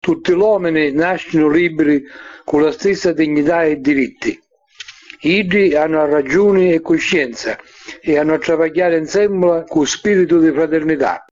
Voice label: udhr sicilian